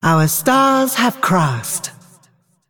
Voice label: Female Dragon Picked